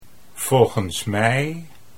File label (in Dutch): "volgens mij", Dutch